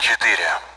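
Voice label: Speech Sound Russian Common AWACS Digits; DCS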